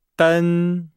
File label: "den", Nihao